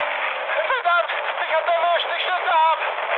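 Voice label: German aircraft destroyed mood high, speech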